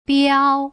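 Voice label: "biao", Mandarin Chinese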